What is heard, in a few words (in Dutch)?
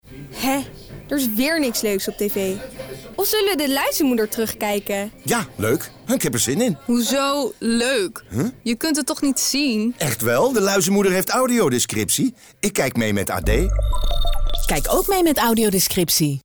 Radiospot nieuwe voice over